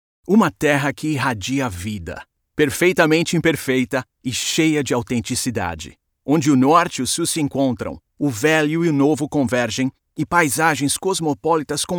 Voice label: portuguese brazilian promos